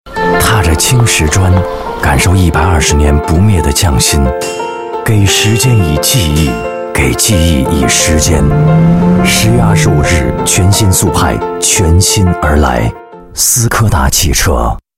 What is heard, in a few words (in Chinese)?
男 汽车广告 国语男声配音; 斯柯达全新速派